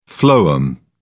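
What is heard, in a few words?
"phloem", British English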